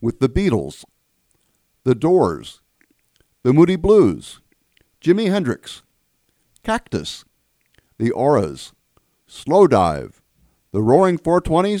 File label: Psychedelic mixdown 3 voices